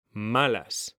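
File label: "malas", Spanish, male; mekanta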